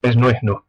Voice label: one language, west flemish